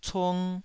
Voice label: "cung2", taiwanese

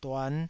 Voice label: "duan5", Taiwanese

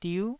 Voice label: "diu9", Taiwanese syllable

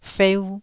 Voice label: "feu1", Taiwanese

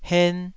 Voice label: "hen2", Taiwanese syllable

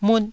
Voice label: "mut4", taiwanese

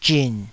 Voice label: "zhin3", taiwanese

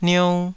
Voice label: "niou2", taiwanese

